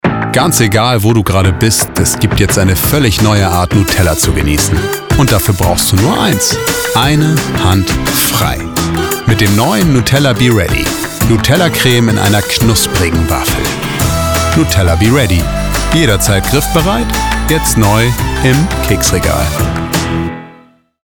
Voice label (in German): Dynamisch freundlich motivierend